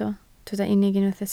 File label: "unig", Welsh